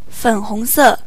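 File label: pink, Serbian; gcompris-data; colors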